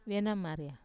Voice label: "venamaria", eel, wamesa

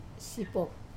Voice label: "sapop", fly, wamesa

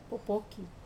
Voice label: "popoki", eggplant, wamesa

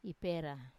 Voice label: "ipera", I cut, wamesa

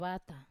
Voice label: "vata", right side, wamesa

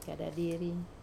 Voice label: "kadadiri", to roll, wamesa

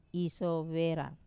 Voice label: "sovera", to open, wamesa

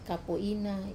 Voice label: "kapo ina", sago mushroom, wamesa

